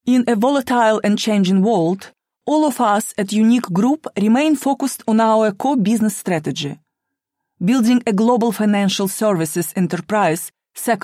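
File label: Russian English female corporate; accent of russia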